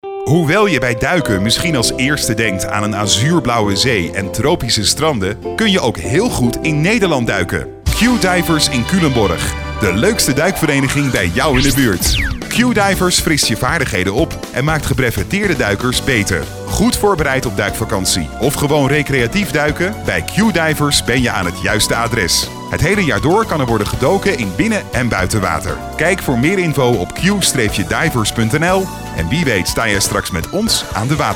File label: commercial; Q Divers